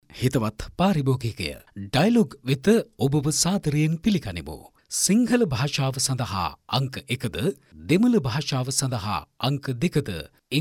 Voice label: MALE TELEPHONE